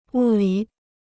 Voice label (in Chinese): "物理", Chinese